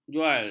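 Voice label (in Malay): "jual", Malay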